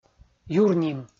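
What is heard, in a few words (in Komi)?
"юрним" Komi, male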